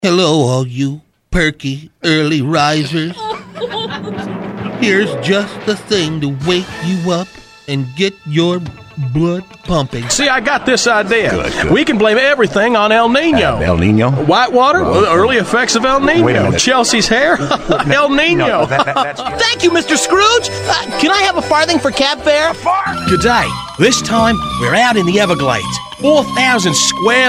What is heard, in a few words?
Character, animation